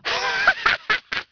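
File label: female wow; People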